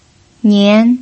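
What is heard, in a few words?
"nian", pinyin, second tone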